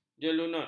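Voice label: "jelunut", Malay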